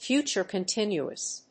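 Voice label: "future continuous", English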